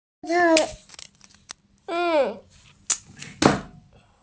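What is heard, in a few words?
"nan2", Mandarin Chinese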